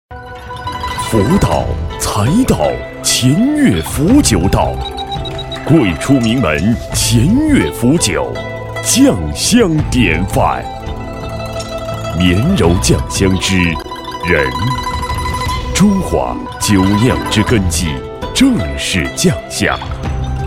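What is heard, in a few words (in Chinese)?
男国大音-产品宣传片; 酱香酒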